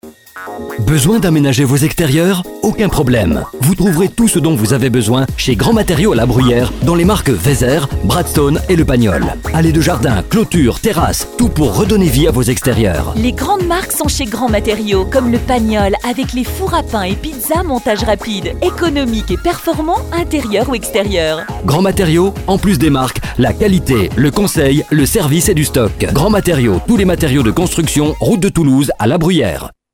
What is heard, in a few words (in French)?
radio pub spot